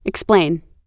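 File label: "explain", US English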